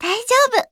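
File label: story, Japanese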